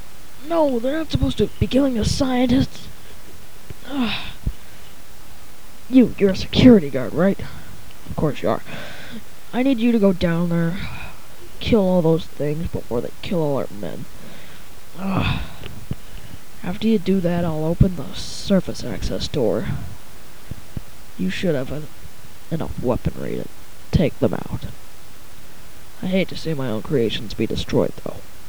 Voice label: robo